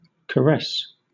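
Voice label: "caress", British English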